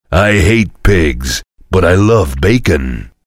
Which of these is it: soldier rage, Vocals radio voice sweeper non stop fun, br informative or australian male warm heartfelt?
soldier rage